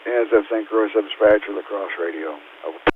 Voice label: BNSF St. Croix La Crosse Radio